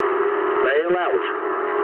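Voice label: English bailout mood low; War Thunder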